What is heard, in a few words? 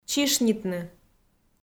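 "чишнитны", Komi, female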